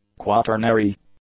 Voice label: "quaternary", English; adjectives